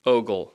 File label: "ogle", English